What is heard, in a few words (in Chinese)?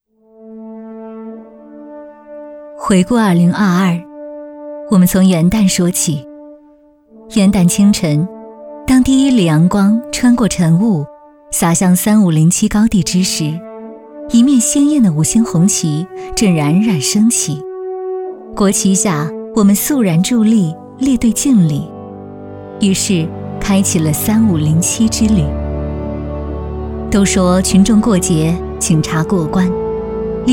女 政府专题 稳重; 我以警心报党恩